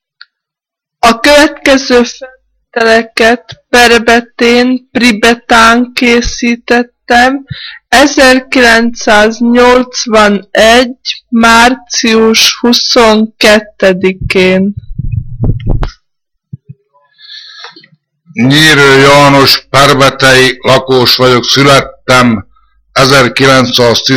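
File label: dialect collection; nyelvjaras gyujtesek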